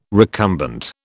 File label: "recumbent", British